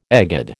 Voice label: "egad", American

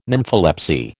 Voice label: "nympholepsy", American

